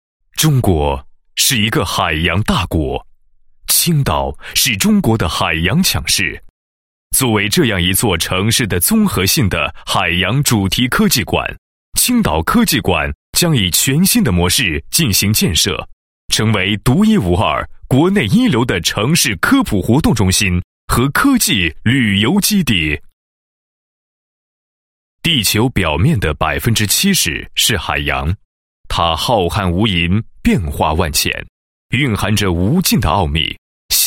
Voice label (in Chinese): 男 项目方案 配音; 海洋科技馆布展方案配音